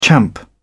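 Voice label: "champ", British English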